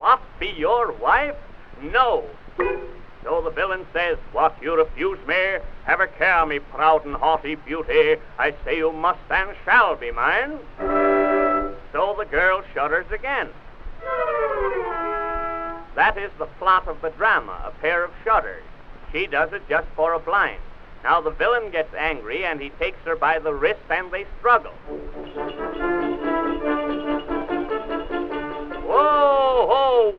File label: monologue, vaudevil